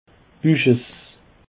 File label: "buchess", Alsace